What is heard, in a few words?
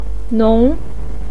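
"nong2", Mandarin pinyin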